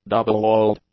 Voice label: "double-walled", English; adjectives